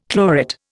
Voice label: "chlorate", Indian